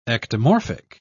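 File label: "ectomorphic", British English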